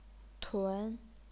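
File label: "tun", chinese pinyin, second tone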